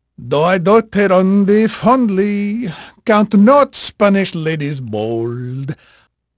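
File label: Spanish male, voice; Pirates Of The Caribbean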